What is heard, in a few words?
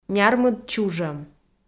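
"нярмыд чужӧм" Komi-Zyrian, female; kpv audio dict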